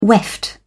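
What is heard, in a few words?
"weft", British English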